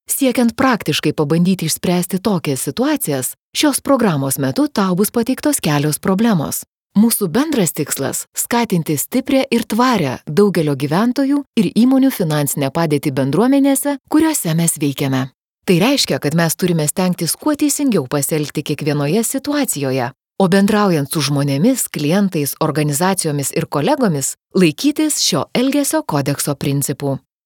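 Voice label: lithuanian female narration; voice demos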